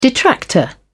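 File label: "detractor", British English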